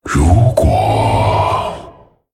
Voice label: Male Dragon Thinking; 思考2; 或许……; 风暴巨龙迦拉克隆（英雄）; Maybe...; zh

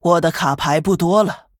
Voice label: Female Human Low Cards; zh

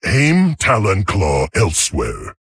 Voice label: Male Tauren; HERO

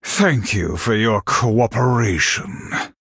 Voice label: Male NightElf Thanks

